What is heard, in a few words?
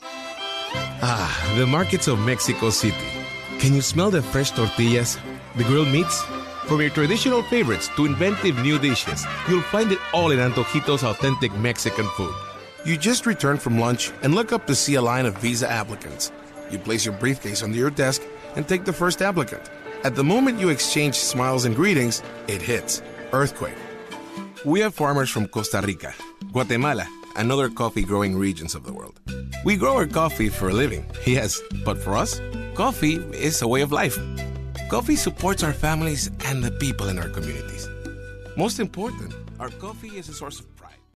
SP Accent